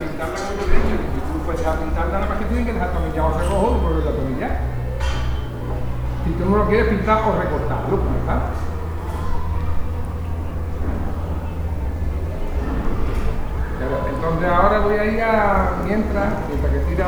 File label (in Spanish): conversacion; exposicion creafab